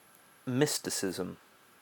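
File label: "mysticism", English, British accent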